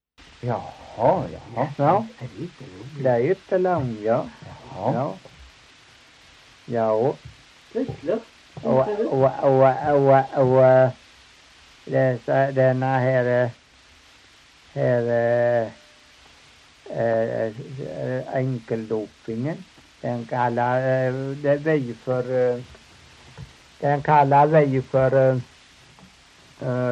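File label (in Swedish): Torhamn Blekinge, Svenska sagor dialekter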